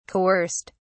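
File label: "coerced", English, British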